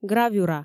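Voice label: "гравюра", Komi, female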